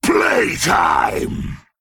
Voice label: Male Worgen Attack